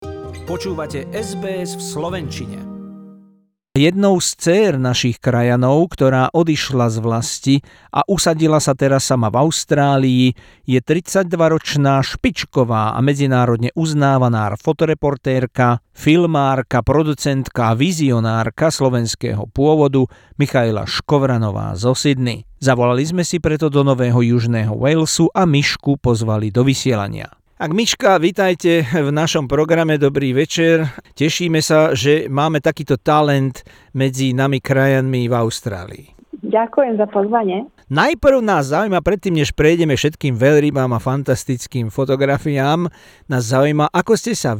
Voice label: slovak; SBS Slovak; filming whales under water in Antarctica; Interview with Slovak photoreporter